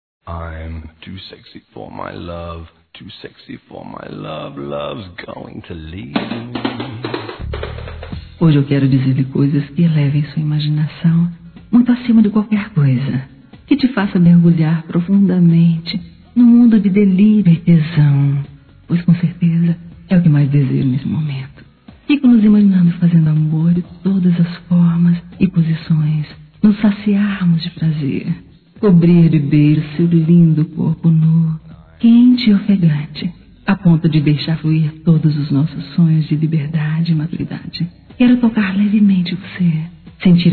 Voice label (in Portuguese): Erótica Feminino; Telemensagens; Sensuais